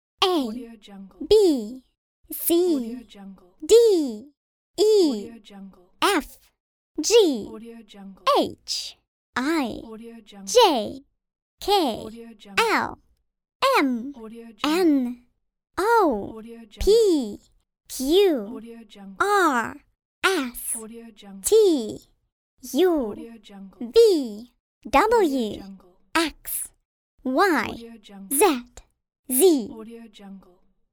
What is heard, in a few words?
alphabet little girl